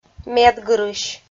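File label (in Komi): "медгырысь", Komi, female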